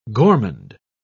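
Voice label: "gormand", English, British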